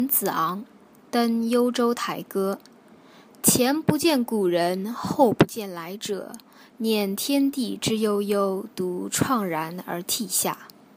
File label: Mandarin; Climbing Youzhou Tower